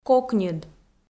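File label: "кокньыд" Komi, female; audio dict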